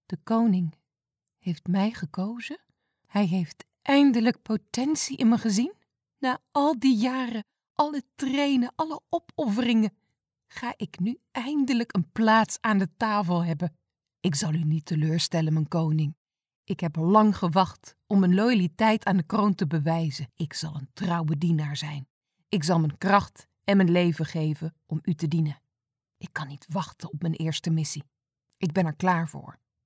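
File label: Dutch, Netherlands, female, voice over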